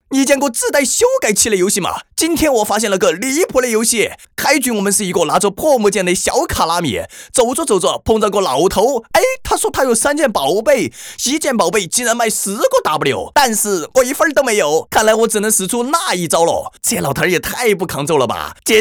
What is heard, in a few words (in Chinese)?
搞怪; 你见过自带修改器